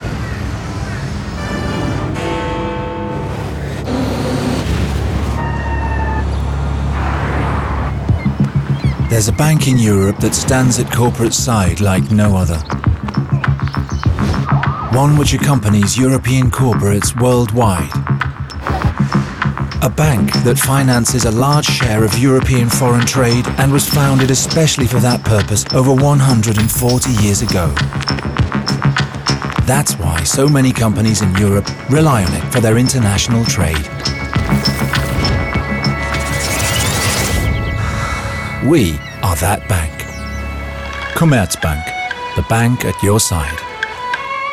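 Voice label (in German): British English, Fremdtake Cool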